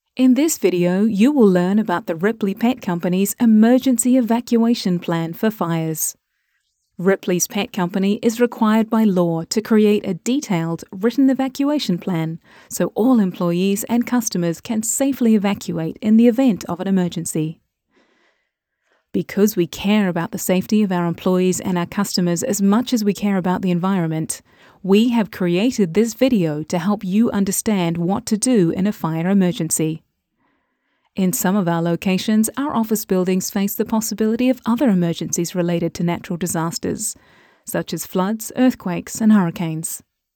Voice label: E Learning Compliance